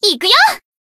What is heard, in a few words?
Battle Shout; Asuna